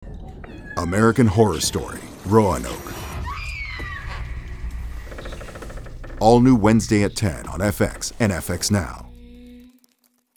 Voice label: promo AMERICAN HORROR